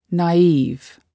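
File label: "naive", English word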